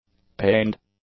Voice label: "pained", English; adjectives